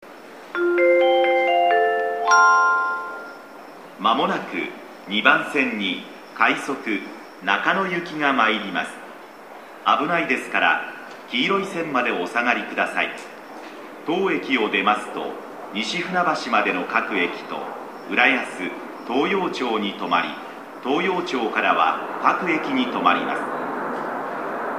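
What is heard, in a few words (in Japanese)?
接近, 駅放送; 2番線; yachiyo-chuo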